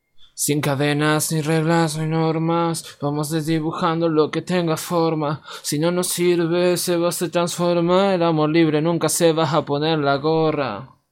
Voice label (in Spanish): voz rap